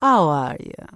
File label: Troll Female Laid Back NPC Greeting